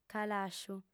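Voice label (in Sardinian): "calàsciu", female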